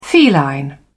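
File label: "feline", British English, dictionary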